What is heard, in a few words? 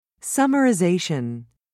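"summarization", British English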